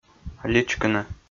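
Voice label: "личкыны", Komi, male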